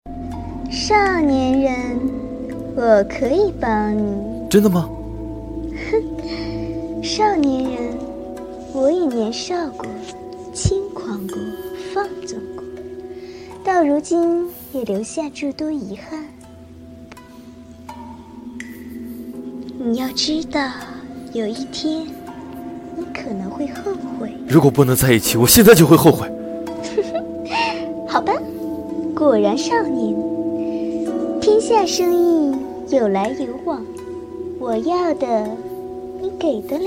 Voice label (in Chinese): 动画配音